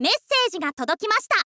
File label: "message ga todokimashita", girl; voice